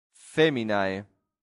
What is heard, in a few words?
"feminae", Latin; santillana